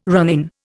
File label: "ronin", Indian